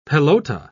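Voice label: "pelota", English, British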